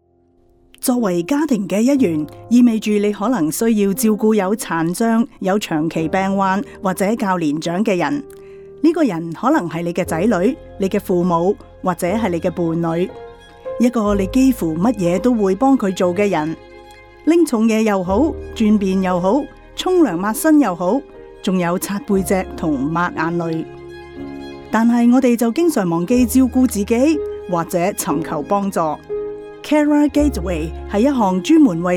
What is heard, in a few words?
Cantonese; Carer Gateway